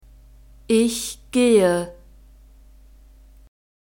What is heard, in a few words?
"ich gehe", German